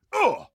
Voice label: pain, combine soldier; hl2